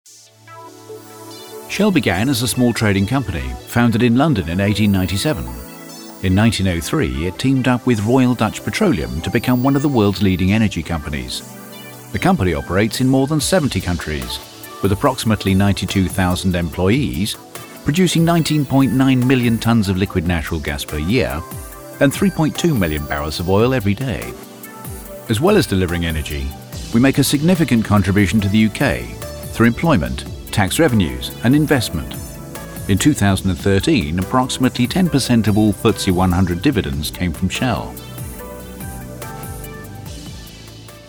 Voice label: Corp Narrative